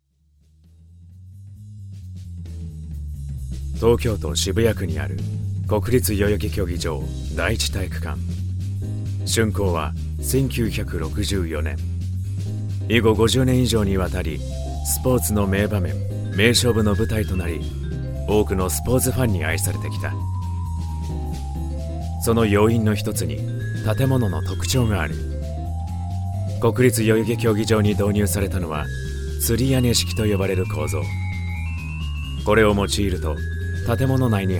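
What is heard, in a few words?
Japanese Documentary; GoLocalise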